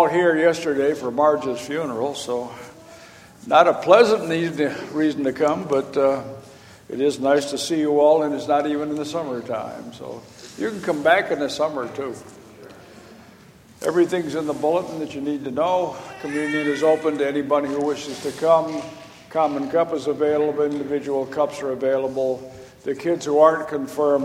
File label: Welcome and Announcements